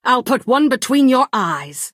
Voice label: female taunt; Bosmer

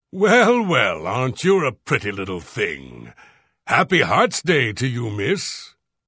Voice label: Unused Holiday Hello Nord Male

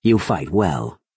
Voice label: Imperial male nice play

